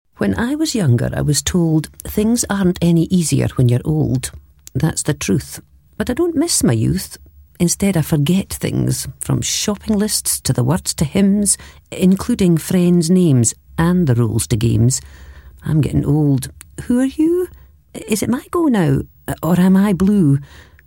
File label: Narrative, Poetic; Punjana Tea